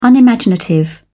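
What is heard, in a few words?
"unimaginative", British English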